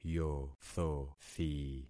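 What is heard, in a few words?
"yothothi", concatenated syllables; Affixing experiment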